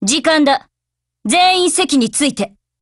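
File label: HELLO Japanese, Voice; GF Alarm; FP6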